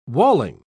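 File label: "walling", British English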